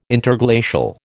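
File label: "Interglacial", American English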